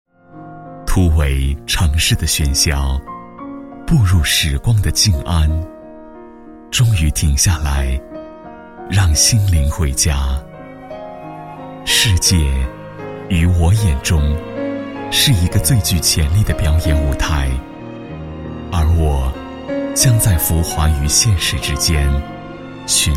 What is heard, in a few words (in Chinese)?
男3-深情缓慢, 国语男声配音; 让心灵回家